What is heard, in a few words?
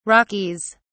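"rockies", British English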